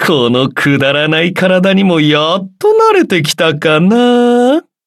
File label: upgrade, ja; cookierunwiki